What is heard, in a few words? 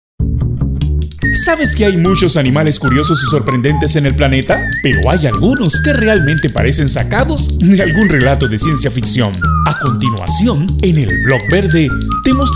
panamanian voiceover; locutor panameño